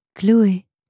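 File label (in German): "Chloe", französische Mädchennamen, Aussprache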